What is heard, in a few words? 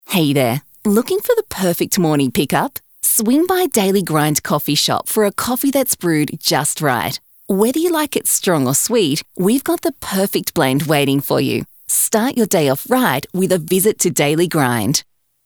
Conversational